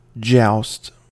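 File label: "joust", American English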